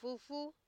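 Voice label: "fufu", Nigerian Pidgin, female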